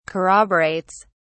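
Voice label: "corroborates", British English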